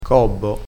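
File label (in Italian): "gobbo", crespinese dialettale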